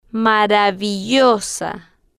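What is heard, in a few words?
"ma-ra-vi-llo-sa", Spanish, female, slow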